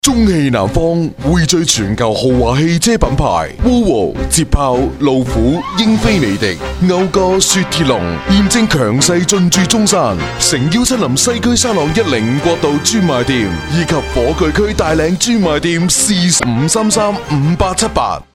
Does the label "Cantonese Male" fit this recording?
Yes